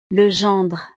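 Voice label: "le gendre", French; familia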